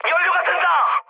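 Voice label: Korean tank damaged mood high; War Thunder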